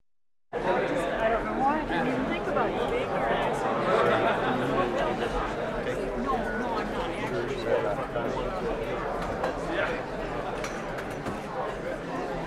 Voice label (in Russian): mnogo lyudey govoryat